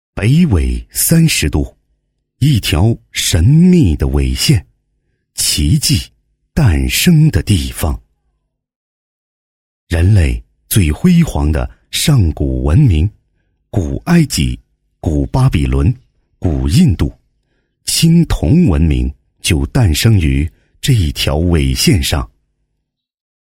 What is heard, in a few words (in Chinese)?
男 舌尖风, 国语男声配音, 浑厚; 北纬三十度; 浑厚专题